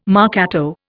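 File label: "marcato", Indian English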